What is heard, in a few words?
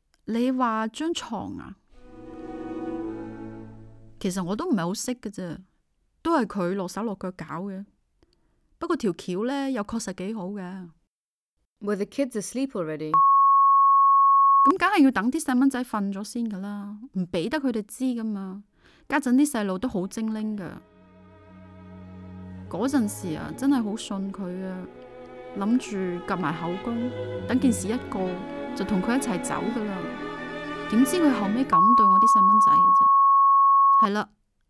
conversation